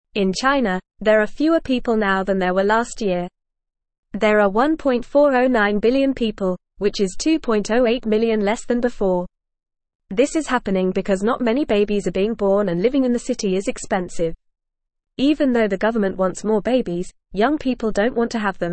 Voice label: Beginner FAST Reading, English; English Newsroom; Chinas Population Drops Money Troubles Cause Concern

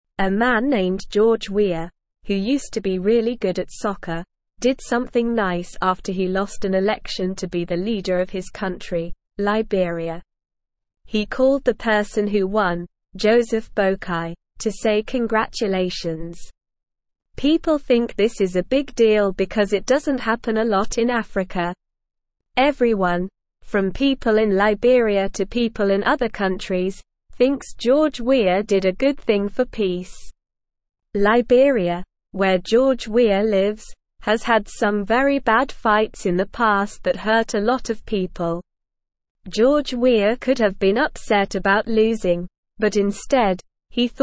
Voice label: English Lower Intermediate SLOW Reading; George Weah Congratulates Joseph Boakai Making Libe; English Newsroom

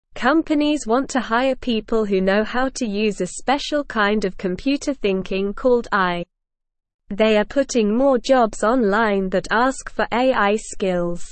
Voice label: Lower Intermediate SLOW Reading, English; English Newsroom; Using AI to Help with Work A Simple Guide

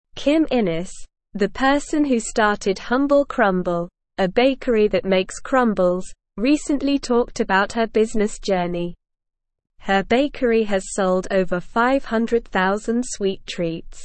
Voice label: English Newsroom Upper Intermediate SLOW Reading; Pumpkins and Persistence A Bakery Founders Business

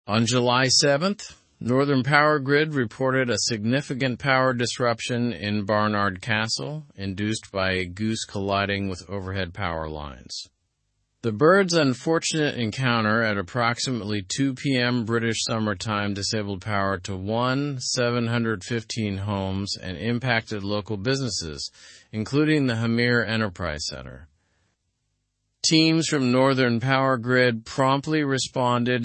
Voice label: learn english through news advanced slow; goose disrupts power supply in barnard castle

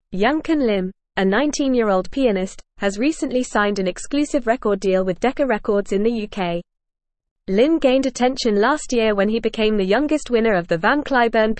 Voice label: English Newsroom Advanced FAST Reading

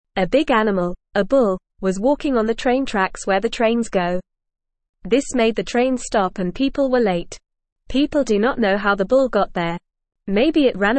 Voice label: Beginner FAST Reading, English; English Newsroom; Bull on Train Tracks Causes Trouble Gets Help

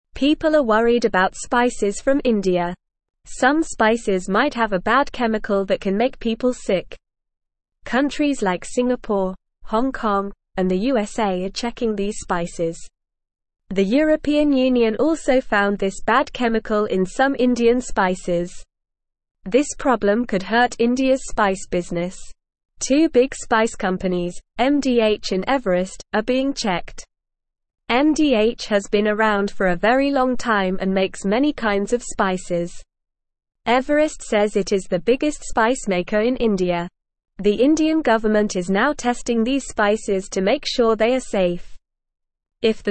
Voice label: English NORMAL Reading; Worries about Indian spices hurting peoples health

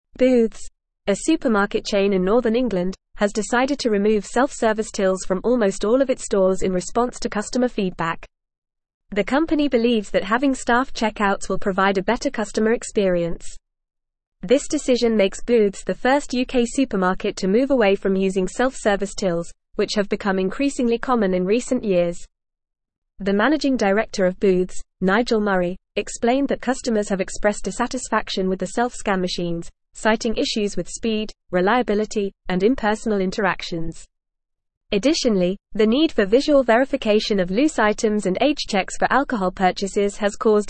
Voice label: English Newsroom Advanced FAST Reading; Booths removes self service tills to prioritize human interac

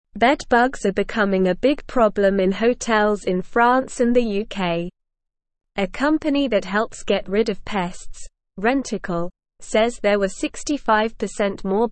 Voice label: English Lower Intermediate SLOW Reading; Hotels use new tools to stop bedbugs; English Newsroom

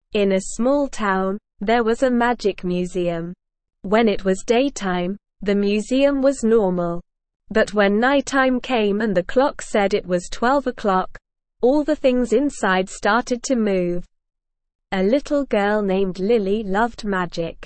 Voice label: ESL Short Stories for Kids Beginner SLOW Reading; Midnight at the Museum of Magic

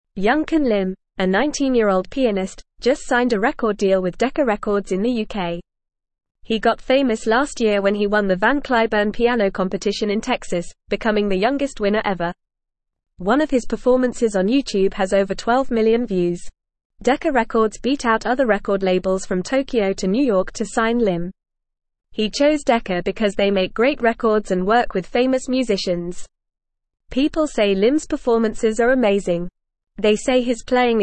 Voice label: English Upper Intermediate FAST Reading; English Newsroom

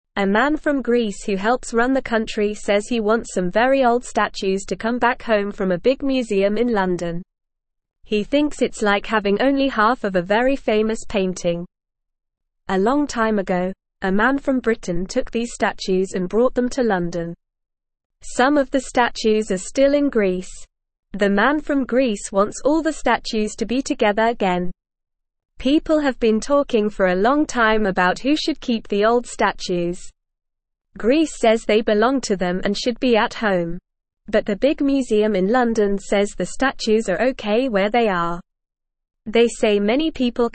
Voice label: English Newsroom Beginner NORMAL Reading; Greece wants old statues back from London museum

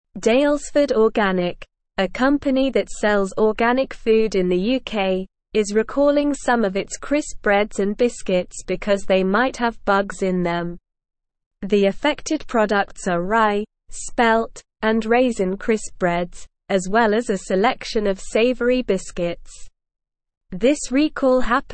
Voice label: English Newsroom Upper Intermediate SLOW Reading

